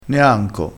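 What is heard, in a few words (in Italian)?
"neanco", dialettale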